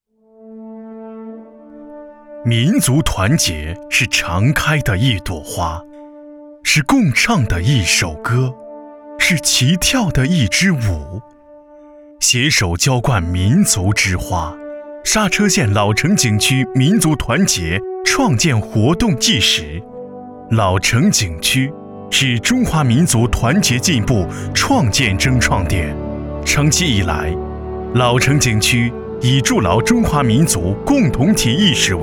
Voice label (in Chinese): 男 感情大气 专题 民族团结汇报片